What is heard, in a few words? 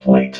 "plate", robot